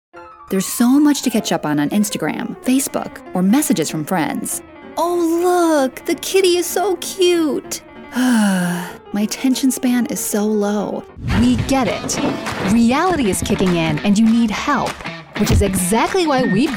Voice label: commerical